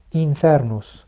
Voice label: "infernus", Latin